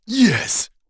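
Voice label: Vox Happy, English; Bernheim; kingsraid; voices heroes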